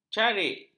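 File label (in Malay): "carik", Malay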